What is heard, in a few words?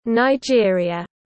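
"Nigeria", English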